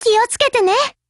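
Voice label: operation begin, Japanese; GF Alarm; HK45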